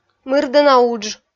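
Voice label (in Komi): "мырдӧна удж", Komi, female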